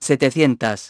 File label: "seven hundred", feminine; iOS 4